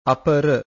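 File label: "apara", Pali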